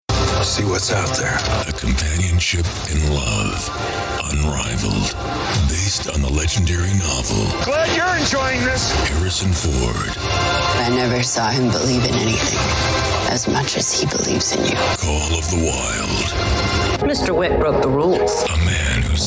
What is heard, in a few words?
Movie Trailers for TV